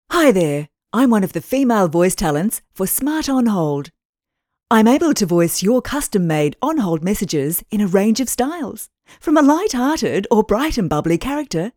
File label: FEMALE